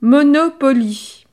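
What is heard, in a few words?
"monopoly", French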